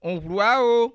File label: bon voyage, bassa